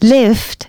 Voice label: female, announcements

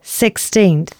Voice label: announcements female

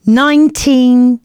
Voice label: announcements female

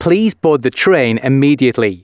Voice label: announcements, male

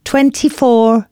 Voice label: announcements female

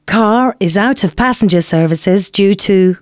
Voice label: announcements, female

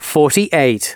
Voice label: announcements male

